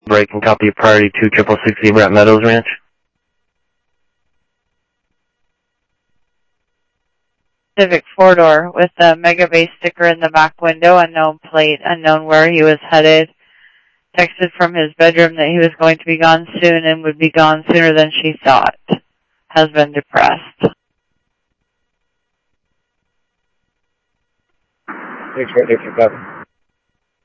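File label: Sheriff West County, scanner audio trunk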